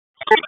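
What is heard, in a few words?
Police District Dispatch, p25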